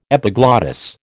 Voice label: "epiglottis", American